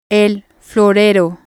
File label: "vase", Spanish